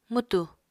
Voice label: "mwtù", Apatani